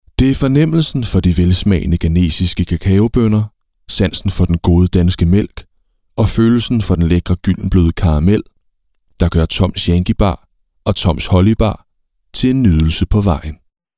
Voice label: Reklame

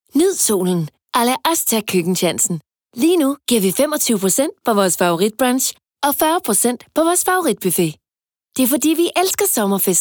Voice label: Reklame

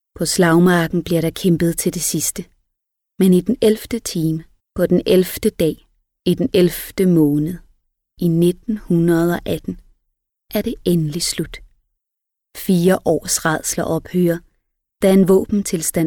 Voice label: Dokumentar

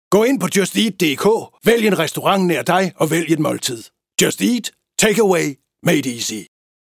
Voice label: Stemmeprøve Sælgende

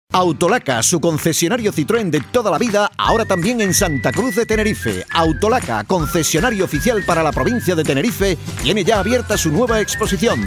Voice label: TV reklame VO

